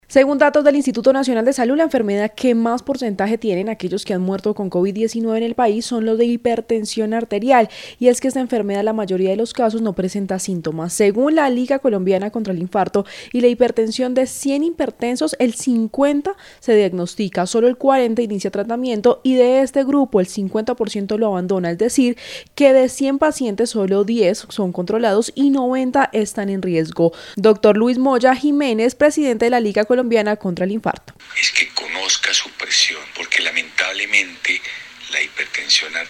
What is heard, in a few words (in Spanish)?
entrevista radio